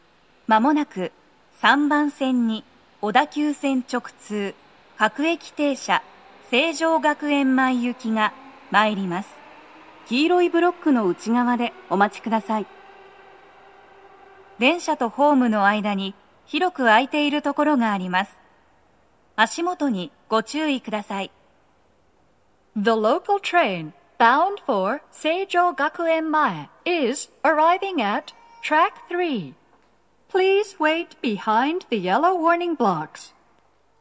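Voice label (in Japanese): 接近放送 女声, 各駅停車 成城学園前行; 放送は「各駅停車 成城学園前行」です。; tokyometro chiyodaline hibiya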